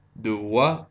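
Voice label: "duwa", Ossetian, male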